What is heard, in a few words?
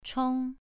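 "chong" Mandarin, second tone, pronunciation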